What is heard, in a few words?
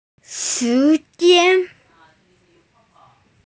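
"time", female; shi2jian1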